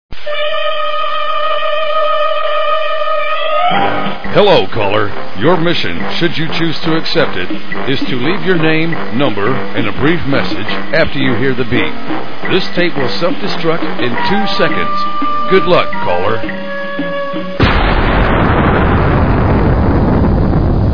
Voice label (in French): Mission Impossible en anglais, Messages; répondeur